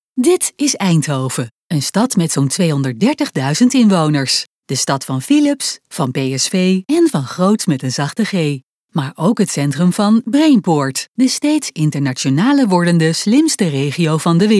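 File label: Dutch, female, corporate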